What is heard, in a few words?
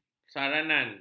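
"saranan", Malay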